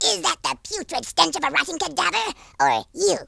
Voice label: "leave me alone", Worms Horror speech